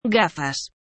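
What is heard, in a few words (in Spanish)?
"Gafas", Spanish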